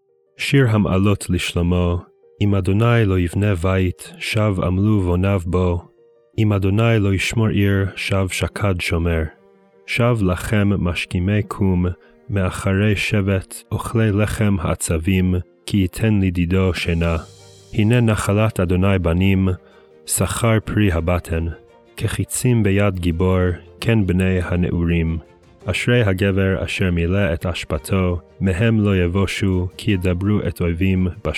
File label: poetry and prayer psalm hebrew